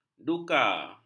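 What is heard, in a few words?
"duka", Malay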